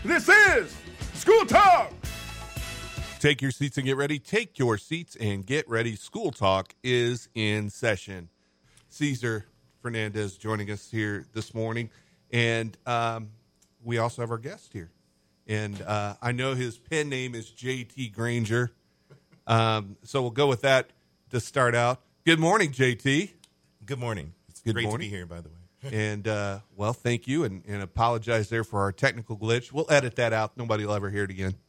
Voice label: Radio Interview; WBDG 90.9 FM; School Talk